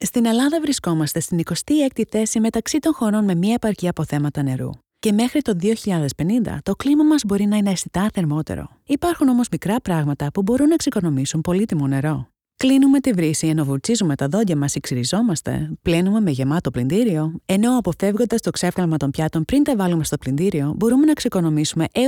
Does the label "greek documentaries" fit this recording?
Yes